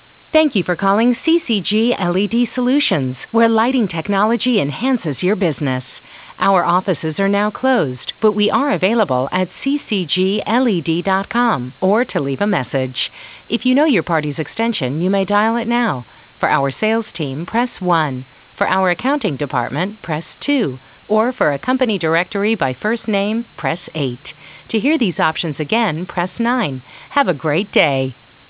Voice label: main auto attendant off hours